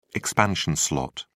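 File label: "expansion slot", British English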